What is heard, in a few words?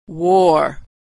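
"war", American English; vowel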